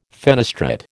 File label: "fenestrate", American